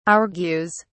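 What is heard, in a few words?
"argues", British English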